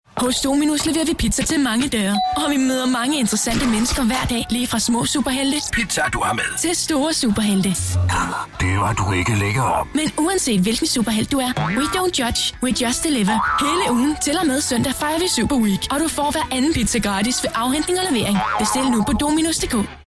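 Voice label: Danish, Advertising; GoLocalise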